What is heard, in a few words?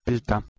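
"plth2", Proto-Indo-European; eastern origins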